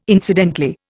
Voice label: "incidentally", Indian

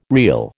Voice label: "reel", American

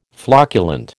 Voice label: "flocculent", American

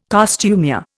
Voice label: "costumier", Indian

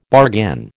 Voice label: "bargain", American

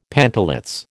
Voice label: "pantalets", American

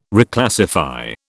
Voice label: "Reclassify", British

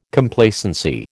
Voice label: "Complacency", American

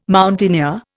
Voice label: "mountaineer", Indian

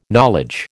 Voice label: "knowledge", American

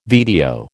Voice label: "VTO", American

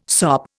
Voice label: "sop", Indian

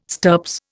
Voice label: "stirps", Indian

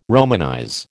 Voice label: "romanize", American

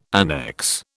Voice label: "annex", British

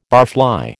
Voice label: "barfly", American

